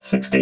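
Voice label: "sixty", robot voice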